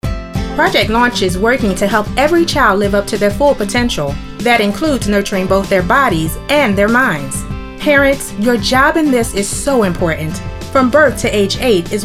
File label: radio ad; MEE Project LAUNCH